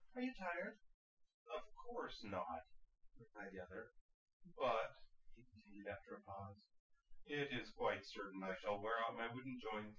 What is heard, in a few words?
male synthconv 250ms 5cm